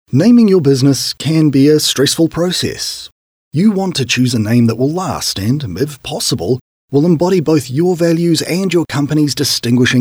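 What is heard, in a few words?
NEW ZEALAND Corporate, male, voiceovers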